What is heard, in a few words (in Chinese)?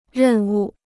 "任务", Chinese